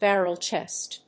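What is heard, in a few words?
"barrel chest", English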